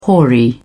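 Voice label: "hoary", British English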